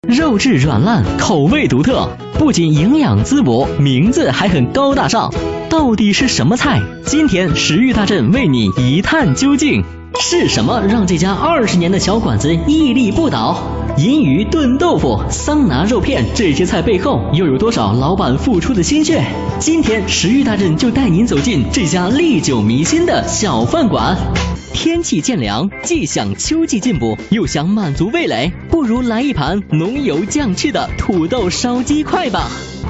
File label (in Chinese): 男 视频 导视 食欲大振